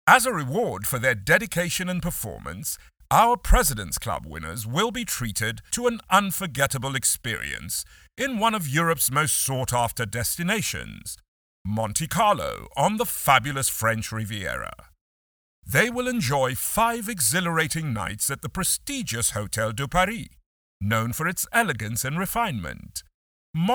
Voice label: Sophisticated european male voice for exclusive incentive announcement; male voice actor; monte carlo